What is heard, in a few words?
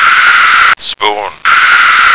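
"spoon", American English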